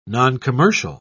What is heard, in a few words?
"noncommercial", en uk